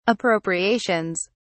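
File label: "appropriations", British English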